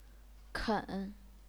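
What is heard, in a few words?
"ken", Chinese, third tone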